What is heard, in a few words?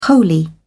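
"wholly", British English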